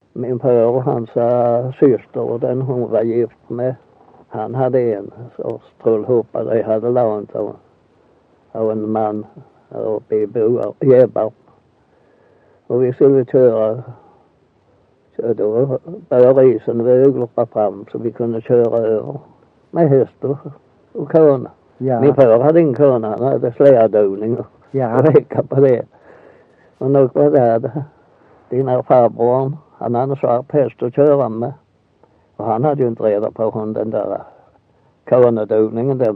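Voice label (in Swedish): Össjö Skåne, Svenska sagor dialekter; Folkminnen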